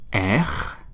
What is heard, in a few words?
French alphabet r